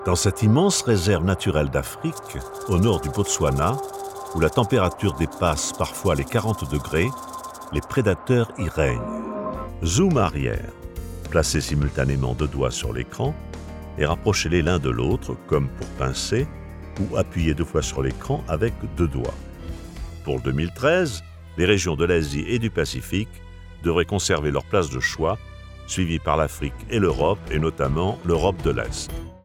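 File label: French, narration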